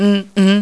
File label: "mimi", Yoruba, high tone; HIGH SET